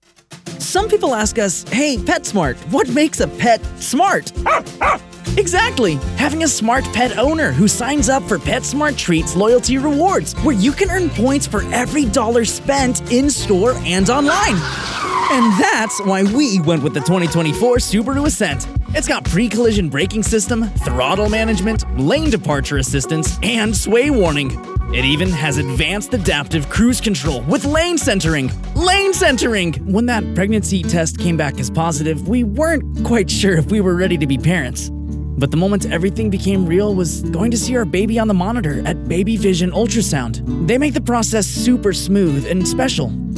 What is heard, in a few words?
Commercial